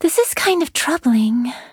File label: act2 nonlinear neutral; lux